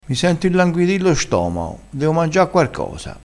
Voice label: "quarcosa", vocabolario dialettale

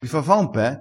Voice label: "pe", crespinese

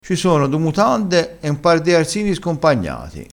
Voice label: "scompagnato", crespinese

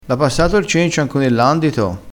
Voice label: "cencio", crespinese

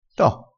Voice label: "en", vocabolario dialettale

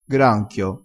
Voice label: "granchio", dialettale crespinese

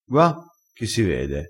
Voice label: "gua", crespinese

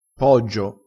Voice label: "poggio", crespinese

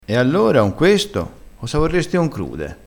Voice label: "concrude", crespinese

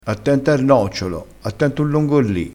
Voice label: "ongolli", crespinese

